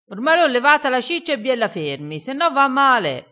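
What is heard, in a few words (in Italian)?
"ferma", vocabolario dialettale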